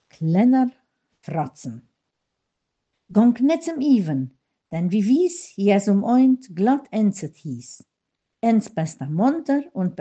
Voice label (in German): kinderverse, mundart; Kinderecke; Klener Fråtzen